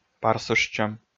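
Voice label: "парсыштчӧм", Komi, male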